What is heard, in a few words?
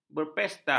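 "berpesta", Malay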